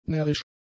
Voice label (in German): "närrisch", German; adjectives